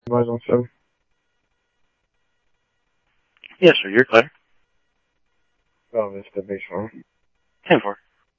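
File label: Mesa Police Department, scanner audio trunk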